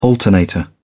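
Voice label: "alternator", British English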